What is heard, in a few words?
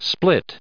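"split", US English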